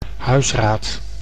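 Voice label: "huisraad", Dutch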